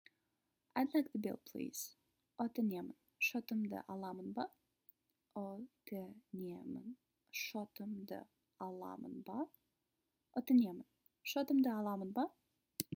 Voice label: Id like the bill please, kazakh